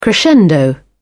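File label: "crescendo", British English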